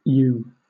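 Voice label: "ewe", British English; pronunciations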